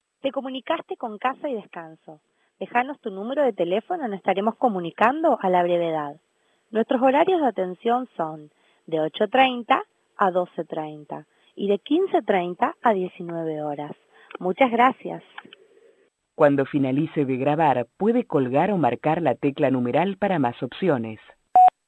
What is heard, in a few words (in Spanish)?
mensajes telefonicos